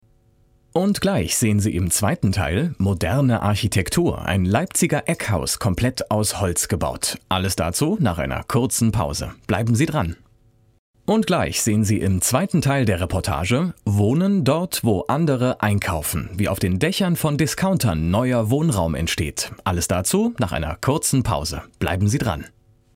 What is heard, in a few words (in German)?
Teaser Trailer